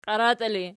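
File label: "qiaratii" ergative, Archi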